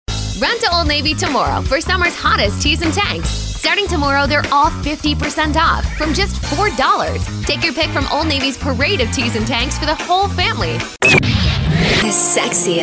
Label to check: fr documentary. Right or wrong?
Wrong